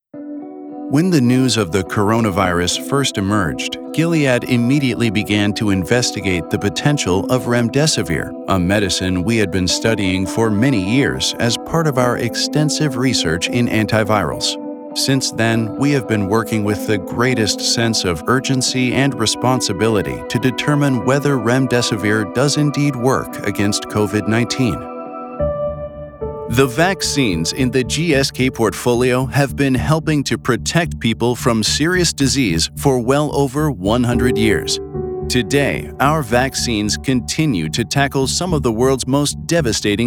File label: Medical Narration